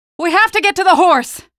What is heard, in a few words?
horse, male; Half-Life 2; streetwar; rubble